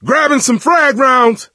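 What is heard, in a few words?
explosive ammo, male; Left 4 Dead 2; coach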